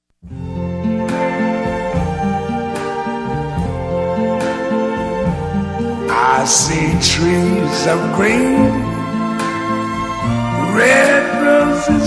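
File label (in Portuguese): convite para madrinha de casamento masculino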